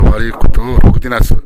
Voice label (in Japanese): "roguderasu", minami yamagata